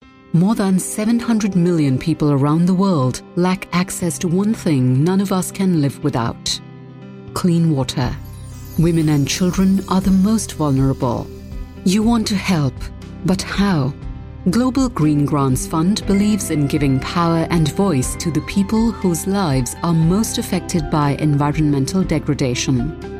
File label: ENGLISH FORMAL